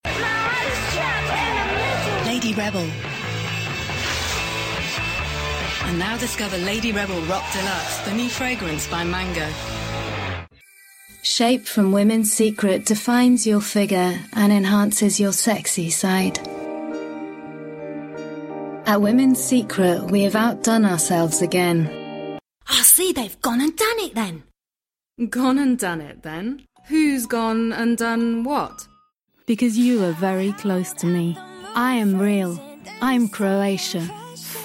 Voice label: Advert